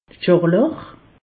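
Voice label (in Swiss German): "scharlach", Alsatian; medecine; scarlatine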